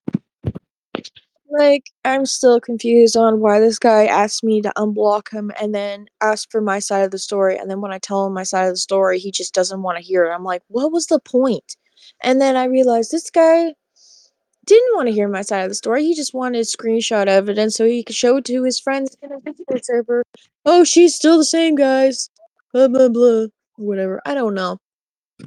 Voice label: voice message